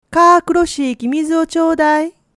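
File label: "kaakuroshii", Japanese dialect, woman; hogen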